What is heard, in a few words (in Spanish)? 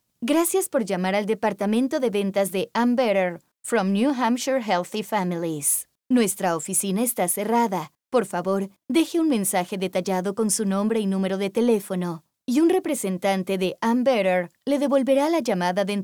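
voice actor, Español - Neutro Latino Americano - Mujer; VoiceBunny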